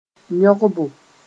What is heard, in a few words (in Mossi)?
"yõgbo", moore